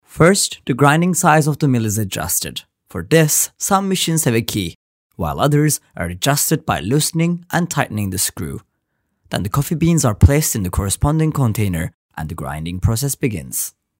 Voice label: english